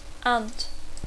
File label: "aunt", English